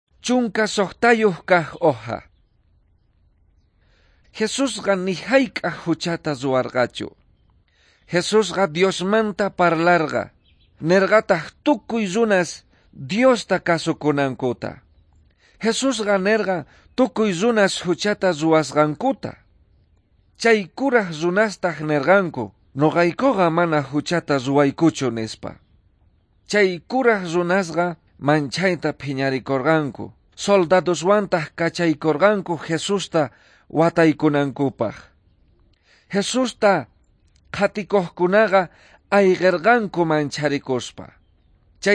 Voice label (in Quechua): quechua bolivian cochabamba; good news